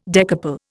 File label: "decouple", Indian